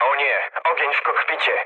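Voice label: Polish on fire mood low; War Thunder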